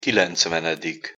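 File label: "kilencvenedik", Hungarian pronunciation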